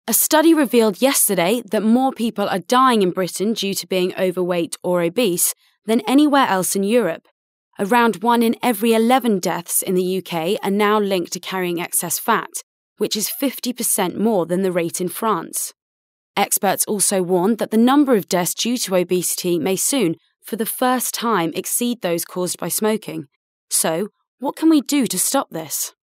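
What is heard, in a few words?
Corporate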